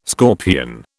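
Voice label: "scorpion", British English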